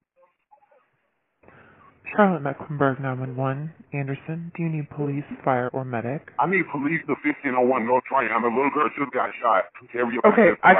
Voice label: 911 call